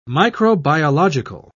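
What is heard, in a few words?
"microbiological", British English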